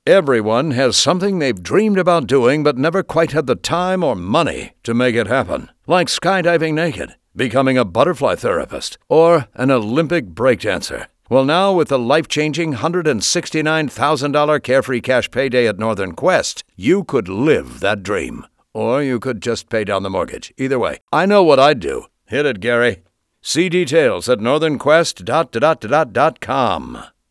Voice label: Breakdance Radio